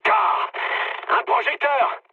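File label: searchlite, mood high, French; War Thunder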